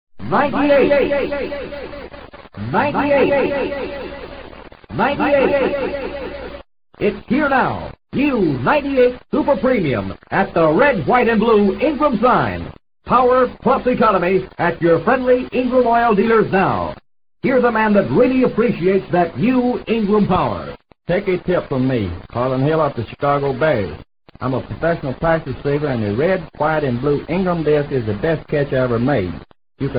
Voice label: Vintage Commercials Gasoline; Ingram Gasoline